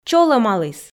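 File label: "чолӧмаліс", Komi, female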